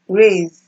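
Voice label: "raise", Nigerian Pidgin, female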